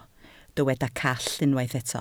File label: "call", Welsh